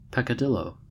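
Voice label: "peccadillo" English, US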